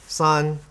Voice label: "San", three